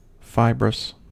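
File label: "fibrous", US English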